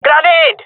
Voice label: throwing grenade, jungle male; player vo; Counter Strike Model Pack; CS:GO